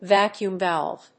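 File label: "VACUUM VALVE", English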